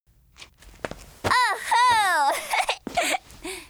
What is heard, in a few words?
FEMALE Emotion